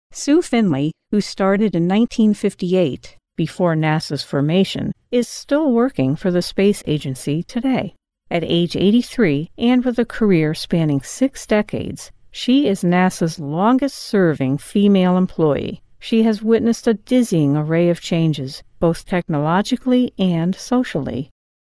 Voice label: Docu